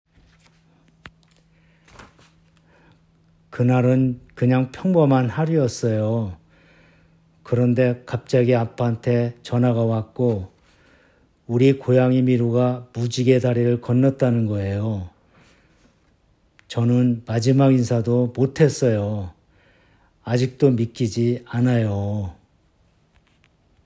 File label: Old Male Sad, korean voice emotion